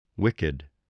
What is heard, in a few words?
"wicked", voice, english